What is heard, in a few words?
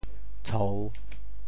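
"tshou3", Teochew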